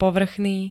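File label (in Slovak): "povrchný", Slovak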